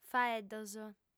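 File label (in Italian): "faeddos", lingua sarda, female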